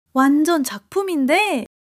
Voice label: excitement, monologue